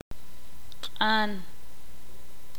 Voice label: amarillo, ixil

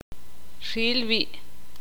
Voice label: "cabello", ixil

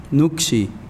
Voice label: Nawat palabras pie; pipil

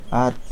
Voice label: Nawat palabras agua; pipil

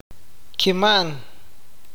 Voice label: "morir", Awakateko

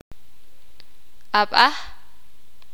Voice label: piedra, sakapulteko

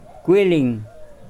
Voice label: Nawat palabras gusano; pipil

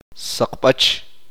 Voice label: uspanteko granizo de hielo

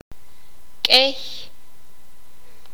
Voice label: "demasiado", Sakapulteko

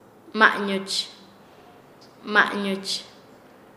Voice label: "porque", Nasa Yuwe; paez

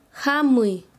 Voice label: "venir", Quechua Chanka

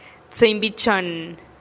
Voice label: "tsïinn bi chonn" diez y ocho, teotitlan

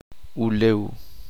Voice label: "tierra", uspanteko

